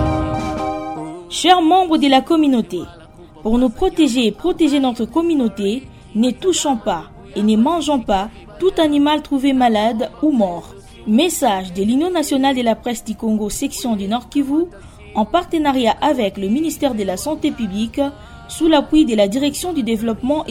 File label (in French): Spot Viande Ebola